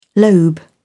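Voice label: "lobe", English, British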